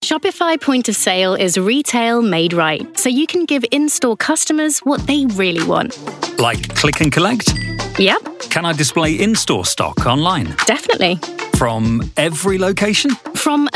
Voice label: Youthful Millennial Female Voice, brand portfolio; reel